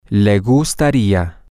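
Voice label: she would like, Spanish, male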